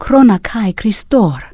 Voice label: Draenei Female Military Greeting